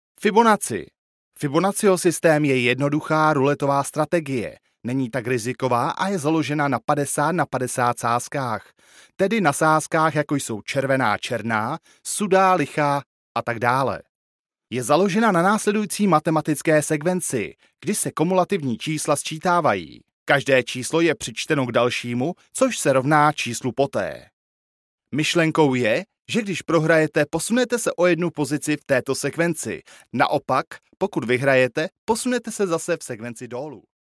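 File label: Czech elearning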